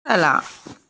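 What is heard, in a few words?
"yu san", Mandarin Chinese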